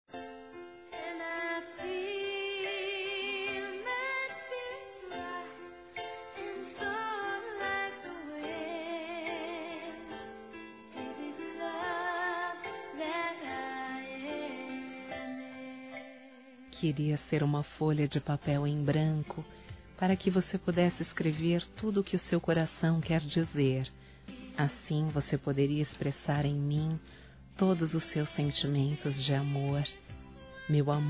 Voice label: DECLARAÇÃO F; Telemensagens; Declaração de amor; Telemensagens 2017

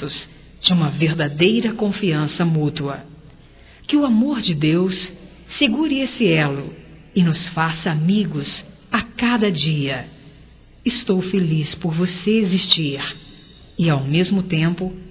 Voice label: Amizade Geral Saudade Feminino, Telemensagens; Saudades

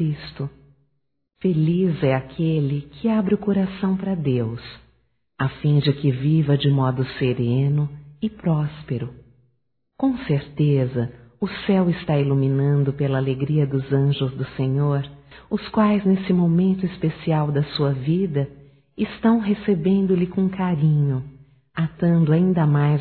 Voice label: Primeira Comunhão Feminino, Telemensagens

